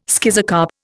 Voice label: "schizocarp", Indian